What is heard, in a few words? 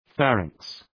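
"pharynx", English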